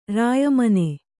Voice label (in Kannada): "ರಾಯಮನೆ", kannada